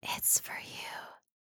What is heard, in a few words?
asmr girl its for you, soft voice; asmr ringtones